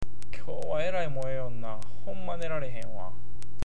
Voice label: "moeru", awaji-ben

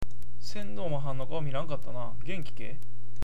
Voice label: "sendo", awaji ben

